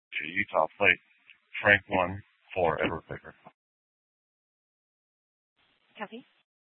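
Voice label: Police Department Dispatch, scanner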